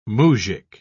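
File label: "muzjik", British English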